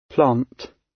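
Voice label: "plant", British English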